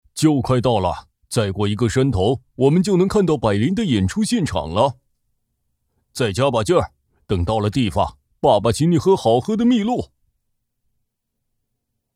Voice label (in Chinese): 男,角色,熊